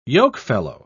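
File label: "yokefellow", British English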